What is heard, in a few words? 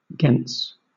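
"gens", British English; pronunciations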